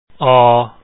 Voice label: au vowel, Lao

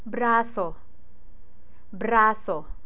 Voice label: "braso", Tagalog

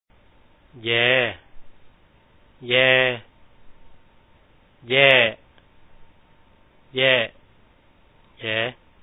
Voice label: yae, ແຢ/ yee ແຢ່ ແຢ້ ແຢ໊ ແຢ໋, Lao; Reading Exercise

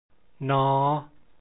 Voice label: n sound, lao

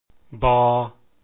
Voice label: b sound, lao consonant sounds

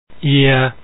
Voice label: ia vowel, lao

